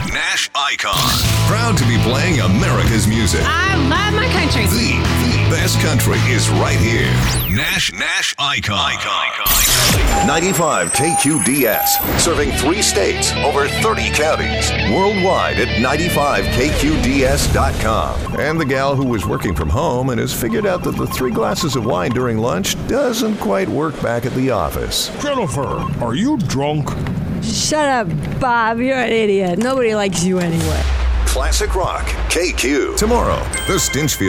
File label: radio imaging